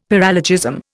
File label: "paralogism", Indian; Dictionary